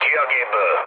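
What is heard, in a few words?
Hungarian bailout mood low, speech; War Thunder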